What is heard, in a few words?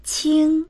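"qing1", Mandarin Chinese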